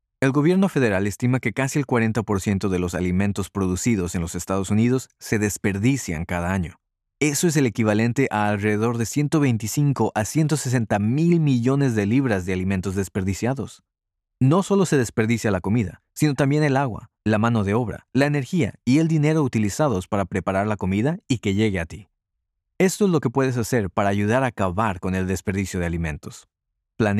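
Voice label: Spanish Infomercial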